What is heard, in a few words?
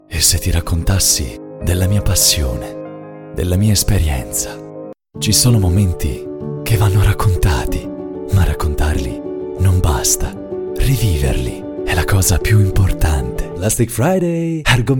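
Emotional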